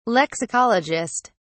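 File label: "lexicologist", British English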